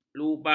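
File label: "lubang", Malay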